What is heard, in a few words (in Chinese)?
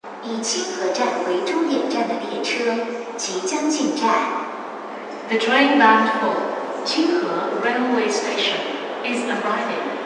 昌平线 清河站方向进站